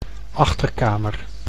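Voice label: "achterkamer", Dutch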